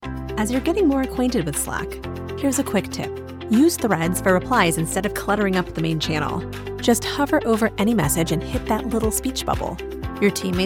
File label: e-learning; slack